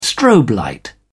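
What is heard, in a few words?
"strobe", British English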